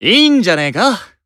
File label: Emo Expect